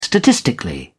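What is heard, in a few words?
"statistically", British English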